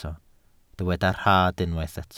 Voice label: "rhad", Welsh